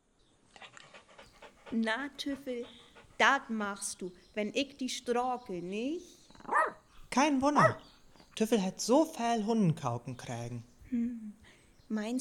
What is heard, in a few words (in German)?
Dialog, niederdeutsch